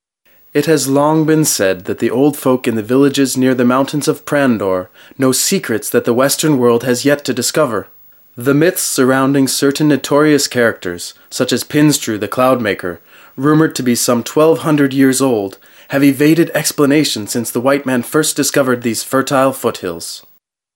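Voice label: male English documentary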